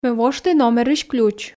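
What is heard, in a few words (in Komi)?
ме вошті номерысь ключ, Komi, female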